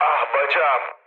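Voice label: Turkish damaged pilot, mood low, speech; War Thunder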